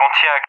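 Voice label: "Pontiac", English speech; Allied Flight; CallSign; DCS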